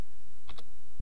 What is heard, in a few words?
"n", east cree syllabics